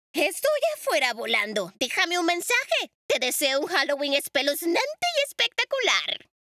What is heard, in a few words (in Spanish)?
Voice Bruja